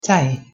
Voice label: "tee" Fries; thee-in-andere-talen

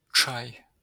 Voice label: "чай", Wit Russisch; thee-in-andere-talen

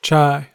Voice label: "चाय", Maithili; thee-in-andere-talen

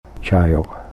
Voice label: "cayuq", Alutiiq; thee-in-andere-talen

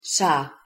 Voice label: "ຊາ", Laotiaans; thee-in-andere-talen

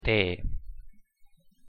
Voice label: "tee" Estisch; thee-in-andere-talen

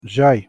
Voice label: "cay", Gagauz; thee-in-andere-talen

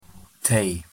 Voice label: "Tee" Nedersaksisch; thee-in-andere-talen

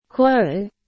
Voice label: "чой", Tadzjieks; thee-in-andere-talen

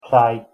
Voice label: "čaj", Servisch; thee-in-andere-talen

